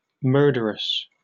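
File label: "murderous", British English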